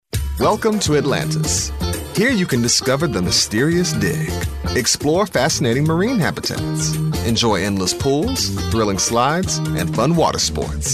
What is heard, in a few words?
english american promos